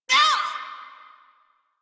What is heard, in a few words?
Pain, Female; Railjack Crew; Cephalon Suda